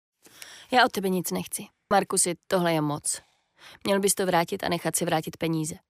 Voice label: locutora checa czech voiceover